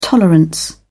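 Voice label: "tolerance", British English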